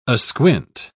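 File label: "asquint", English, British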